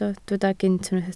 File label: "gynt", Welsh